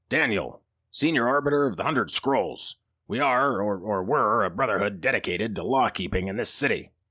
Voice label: Heroic soldier NPC with authoritative presence and courageous determination, Audiobook NPC; Arbiter Daniel